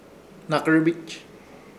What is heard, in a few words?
"Hnakɨrbich", Ishir Chamacoco; varon